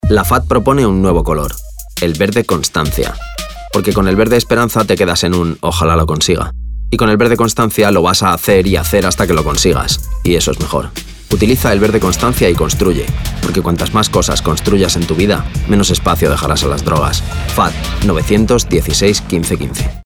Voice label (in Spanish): RADIO Cuña; Verde Constancia